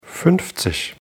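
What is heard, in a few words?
"fuenfzig", German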